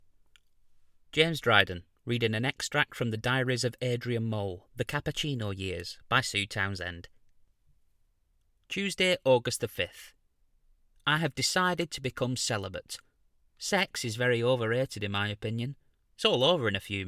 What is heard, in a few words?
AUDIOBOOK; Adrian Mole The Cappuccino Years